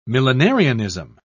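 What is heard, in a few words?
"millenarianism", British English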